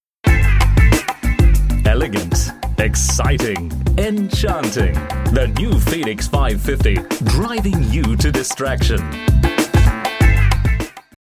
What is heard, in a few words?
v o UK